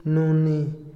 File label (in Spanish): "acusar", Otomí, hombre; INALI